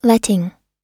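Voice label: "letting", US English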